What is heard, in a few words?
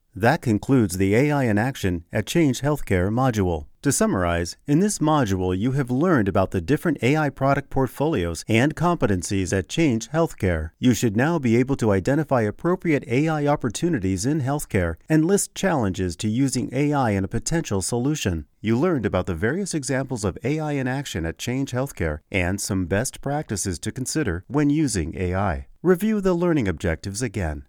Elearning